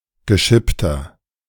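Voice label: "geschippter", German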